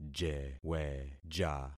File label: "jeweja", concat syllables; Affixing experiment